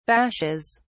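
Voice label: "bashes", British English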